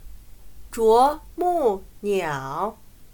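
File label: "啄木鸟", Chinese; 棉花姑娘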